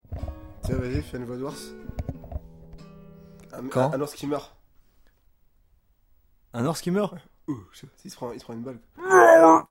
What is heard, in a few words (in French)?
voix ours